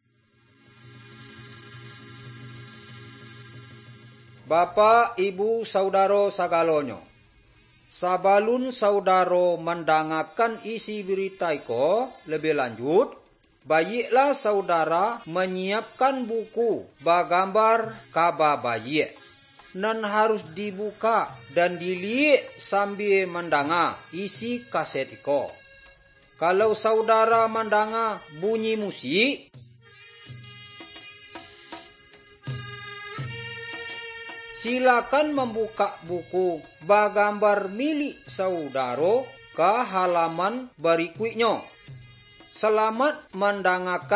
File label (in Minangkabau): good news minangkabau